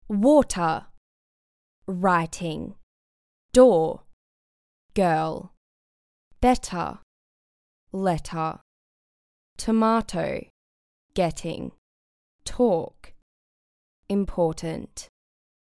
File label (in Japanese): オーストラリア人 女性 サンプル